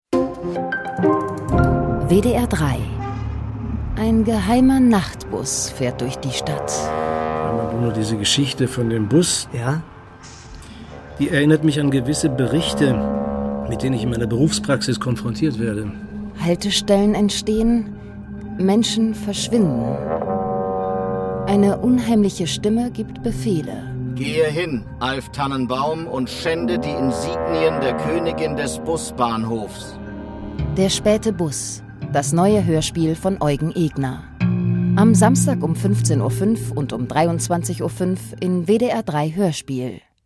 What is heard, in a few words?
radio trailer